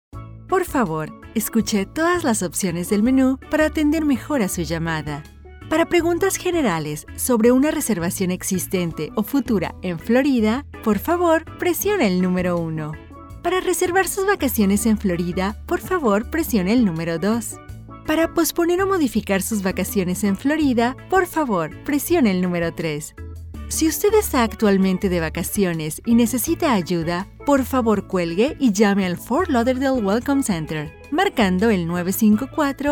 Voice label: IVR; IVR DEMO